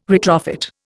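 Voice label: "retrofit", Indian English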